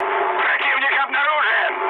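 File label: Russian enemy detected mood high, speech; War Thunder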